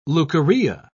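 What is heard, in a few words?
"leukorrhea", British English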